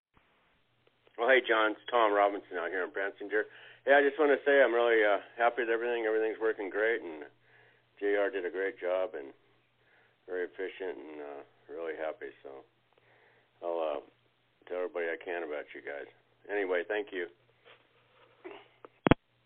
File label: happy customer phone call